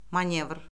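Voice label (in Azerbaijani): "manevr", Azerbaijani; Orfoqrafiya Lüğəti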